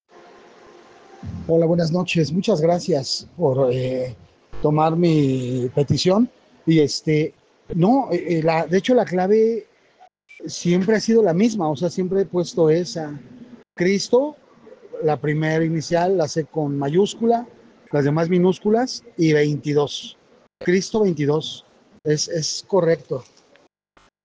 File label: whatsapp ptt